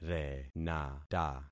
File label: "renata", concat syllables; Affixing experiment